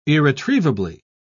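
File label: "irretrievably", British English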